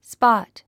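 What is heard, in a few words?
"spot", English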